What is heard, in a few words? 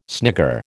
"snigger", American